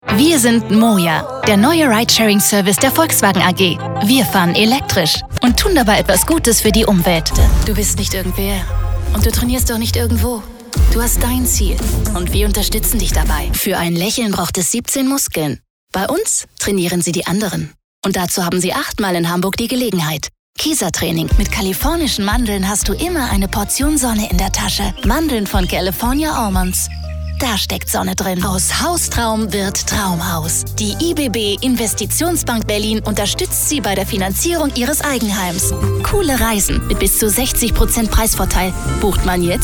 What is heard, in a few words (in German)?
Werbe mix